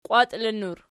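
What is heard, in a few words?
"qwatiinnur", Archi, nominative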